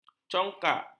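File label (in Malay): "congkak", Malay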